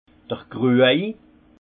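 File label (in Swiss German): "kruj", Haut Rhin; vin; carafe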